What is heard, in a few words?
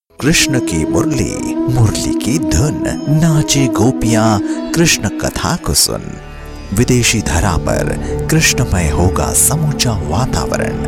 spiritual voice sample